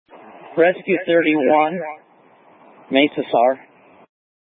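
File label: Incident Tac 1, Scanner audio recording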